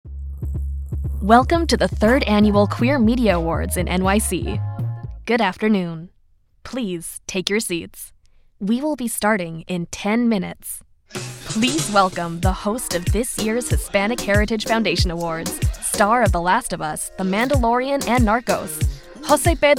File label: english american announcements